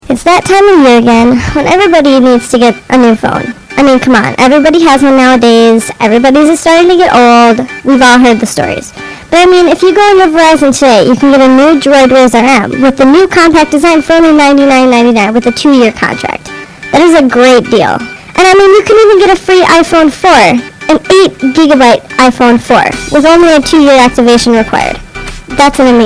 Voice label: radio promo; verizon